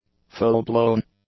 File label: "full-blown", English; adjectives